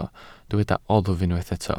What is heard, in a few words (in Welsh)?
"oddf" Welsh